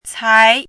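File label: "cai", Mandarin Chinese, second tone; pinyin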